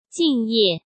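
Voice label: "敬业", Mandarin Chinese; chinese flashcards; hsk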